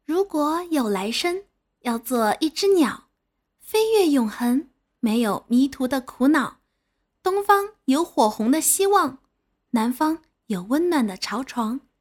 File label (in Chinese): 情感类